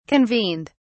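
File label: "convened", British English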